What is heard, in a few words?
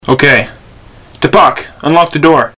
unlock, voice; colony7; Marx